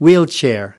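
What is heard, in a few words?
"wheelchair", English word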